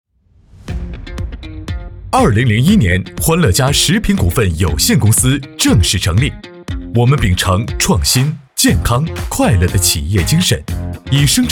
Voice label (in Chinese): 男; 欢乐家食品股份有限公司